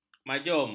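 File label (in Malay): "majum", Malay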